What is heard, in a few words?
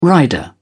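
"rider", British English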